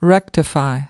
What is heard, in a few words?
"rectify", us